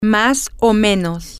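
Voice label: female, spanish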